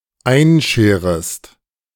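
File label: "einscherest", German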